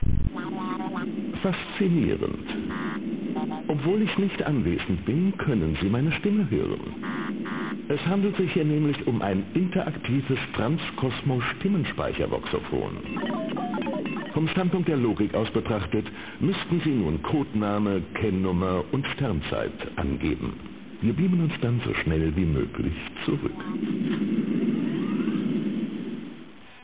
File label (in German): ansage